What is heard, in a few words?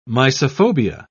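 "mysophobia", British English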